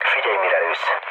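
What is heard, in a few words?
Hungarian player friendly fire mood low, speech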